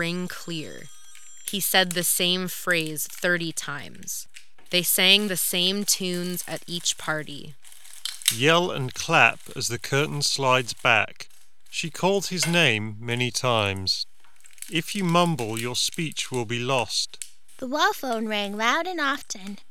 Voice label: Steelseries Arctis Nova Pro Office microphone sample